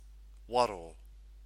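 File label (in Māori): "waro", Māori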